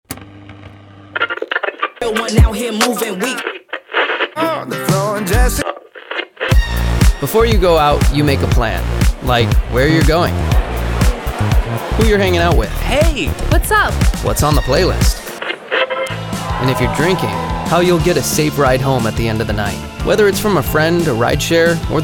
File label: DUI English; WTSC; plan ahead wtsc